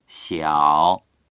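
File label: "xiao3", Mandarin Chinese; 小; 小さい、年少である、（姓の前につけて）～さん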